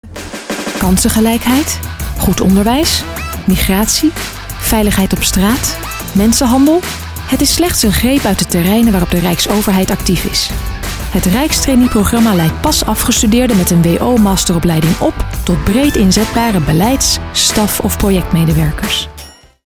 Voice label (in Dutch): Stemdemo Zakelijk